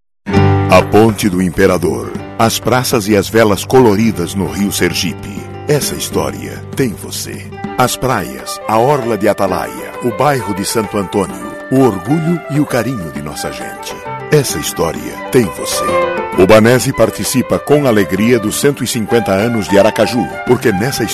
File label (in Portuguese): locucao, locutor publicitario